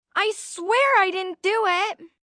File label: Swear I Didnt, 1 girl, 12 yrs; Talking